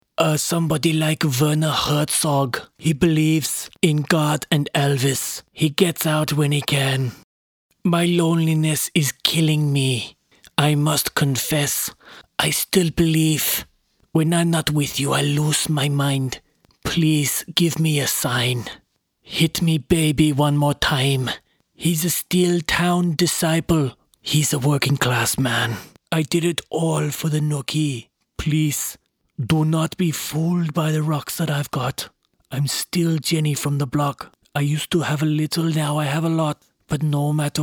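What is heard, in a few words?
Impersonations / German Narrator, male voice; Werner Herzog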